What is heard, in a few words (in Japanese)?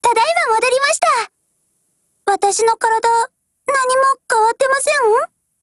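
operation over, Japanese; MP5Mod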